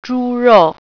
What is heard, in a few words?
"pork", Mandarin